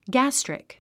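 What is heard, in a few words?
"gastric", English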